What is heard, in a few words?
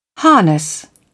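"harness", British English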